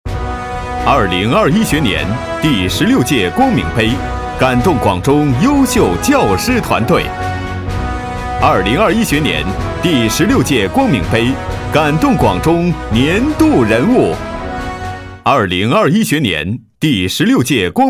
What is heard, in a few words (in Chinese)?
男, 颁奖